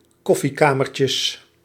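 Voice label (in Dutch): "koffiekamertjes", Dutch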